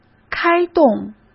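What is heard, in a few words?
"开动", Mandarin Chinese; chinese-flashcards; hsk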